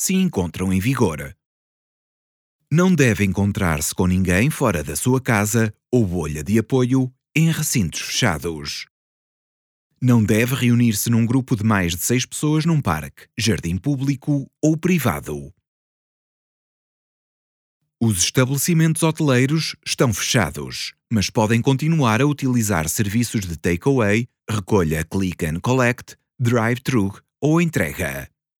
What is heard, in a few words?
PORTUGUESE Covid London Alert Very High